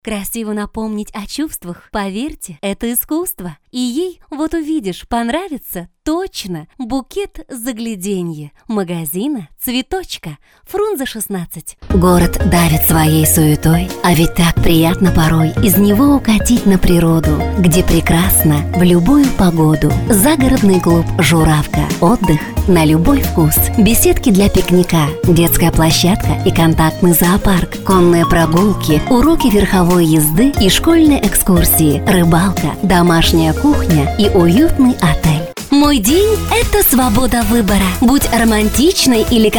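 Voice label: Russian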